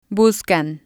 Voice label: "buscan" Spanish